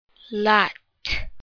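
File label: "lot", English word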